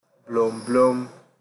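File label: "blowblow", Nigerian Pidgin, male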